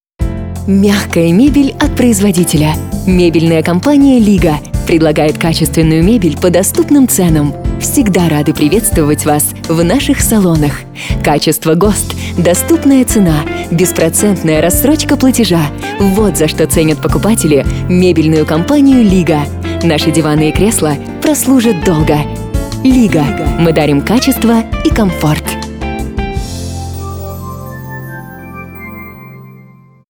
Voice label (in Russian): Аудиоролик рекламный ролик; Мягкая мебель от производителя; АУДИОРОЛИКИ